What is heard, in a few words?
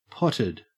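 "potted", Australian English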